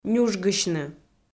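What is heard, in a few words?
"нюжгысьны", Komi, female; kpv audio dict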